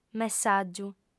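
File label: "messàgiu" Sardinian, female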